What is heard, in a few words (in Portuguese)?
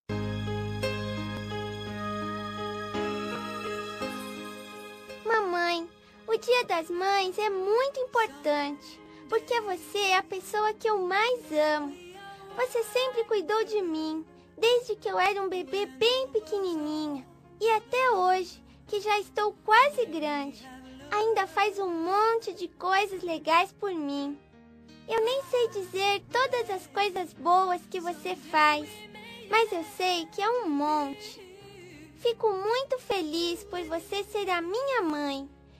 voz fem mae; dia das maes para minha mae voz feminina infantil